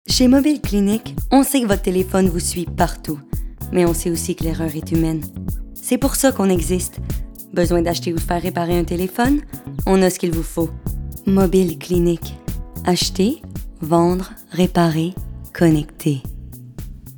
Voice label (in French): VOIX PUB